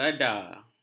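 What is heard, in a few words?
"gada", Malay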